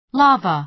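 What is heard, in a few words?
"lava", American English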